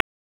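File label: Raleigh Police Northeast District Tactical, p25; wake p25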